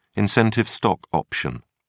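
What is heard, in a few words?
"incentive stock option", British English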